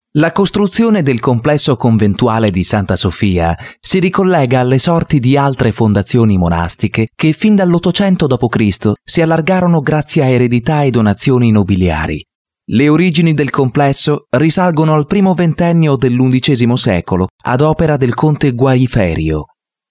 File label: Italian; OverSound